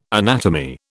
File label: "anatomy", British